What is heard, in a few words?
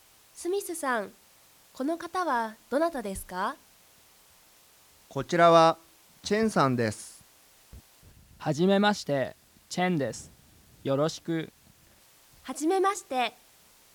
Conversation